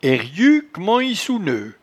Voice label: "eryutcmentinsouneu", patois jura